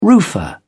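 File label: "roofer", English, British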